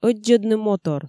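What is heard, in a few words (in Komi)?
ӧддзӧдны мотор, Komi, female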